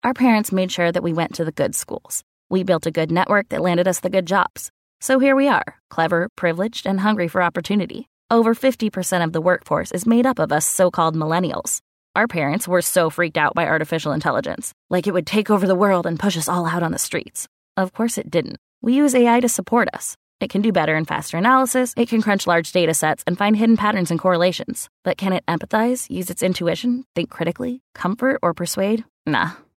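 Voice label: American English, female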